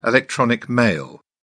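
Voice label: "electronic mail", British English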